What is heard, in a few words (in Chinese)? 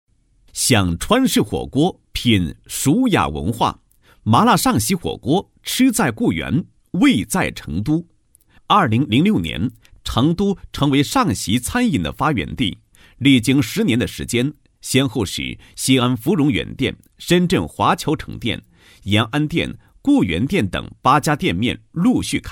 男, 店铺宣传; 麻辣尚席火锅店宣传简介